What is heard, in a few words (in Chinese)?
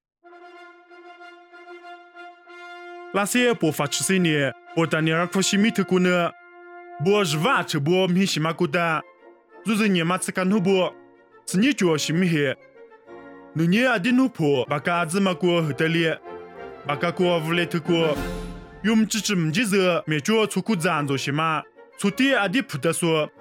凉山彝语; 以色列伯大尼; nuosu